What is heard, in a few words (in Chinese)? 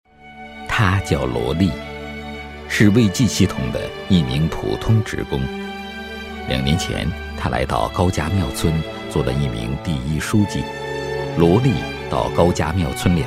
男-人物专题; 某村书记罗某简介